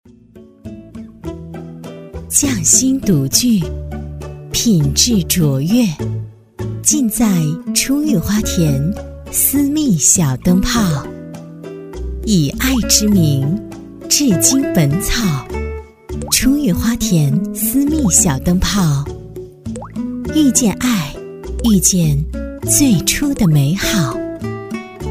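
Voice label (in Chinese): 女-品质广告【护肤品 品质磁性】; 初遇花田护肤品; 质感磁性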